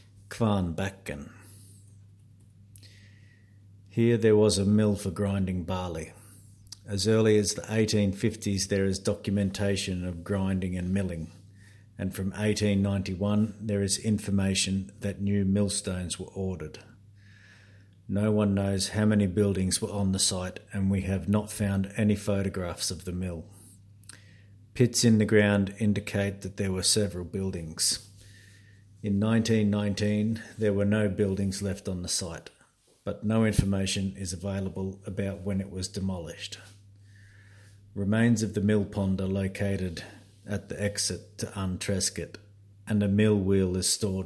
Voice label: English voice; Kvarnbacken